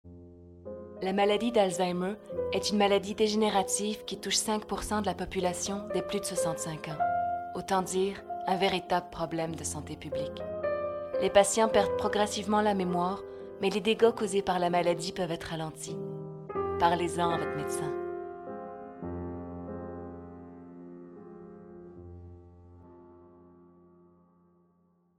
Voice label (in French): Demo Voix; Alzheimer